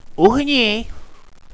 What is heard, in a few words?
regroup, radio